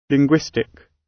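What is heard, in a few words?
"linguistic", British; Study, education, research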